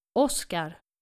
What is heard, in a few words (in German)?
"oskar", skandinavische jungennamen